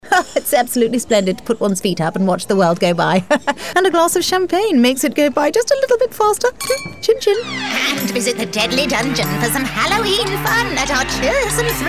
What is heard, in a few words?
Character Showreel